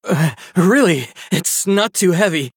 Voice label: Male Human Play